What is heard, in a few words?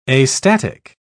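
"astatic", British English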